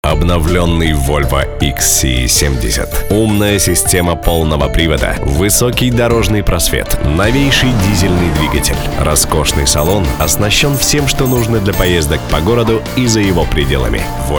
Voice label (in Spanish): Masculino